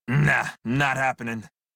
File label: Crew Reject Male en; Dialog; Warframe; Railjack Crew; Red Veil